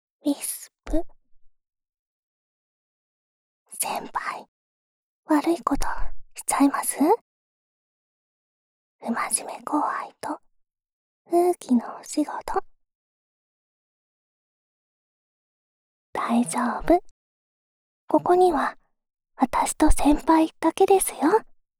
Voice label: タイトルコール, ASMR

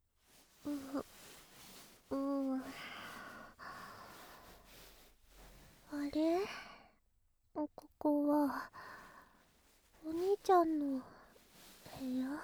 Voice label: 射精音大きめカウントダウンあり

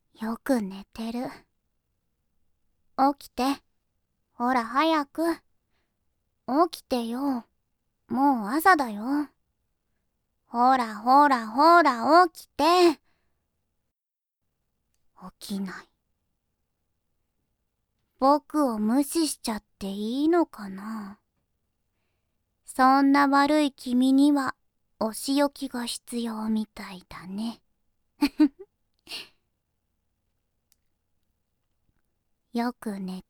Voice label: 目覚まし ボイス

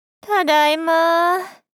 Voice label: ただいま～ 大人 中音域

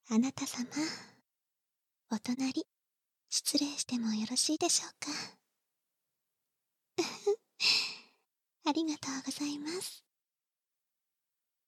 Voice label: 添い寝（バイノーラルマイク収録 効果音なし）

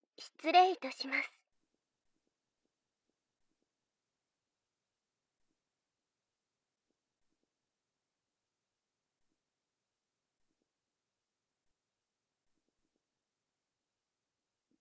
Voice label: バイノーラルマイク 効果音なし; 夢見亭へようこそ

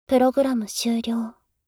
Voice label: プログラム終了, システムボイス; おまけ (Windows等向けシステムボイス)

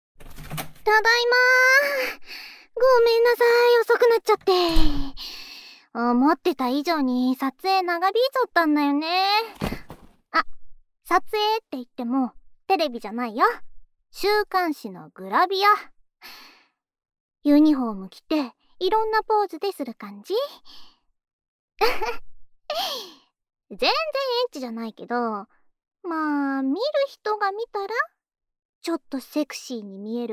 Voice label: お耳、いっぱいくすぐってあげるね♪（右耳ふーふー）

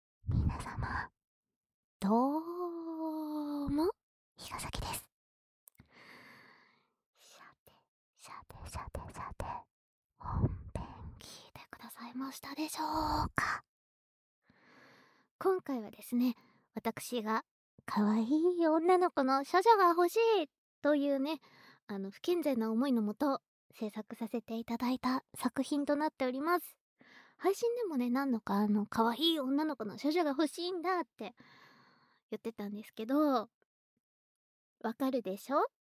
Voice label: フリートーク

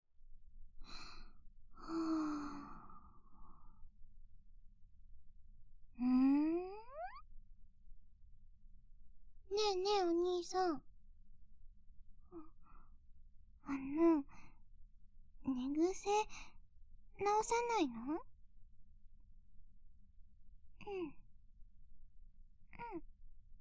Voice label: BGM効果音なし

